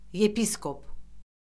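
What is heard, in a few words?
"yepiskop", Azerbaijani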